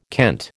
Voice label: "kent", American